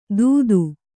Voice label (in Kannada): "ತುತ್ತು", Kannada